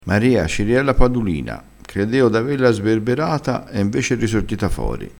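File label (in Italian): "padulina", dialettale crespinese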